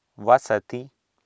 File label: "vasati", Sanskrit